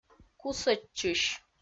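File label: "кусӧдчысь", Komi, female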